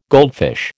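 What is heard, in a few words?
"goldfish", American English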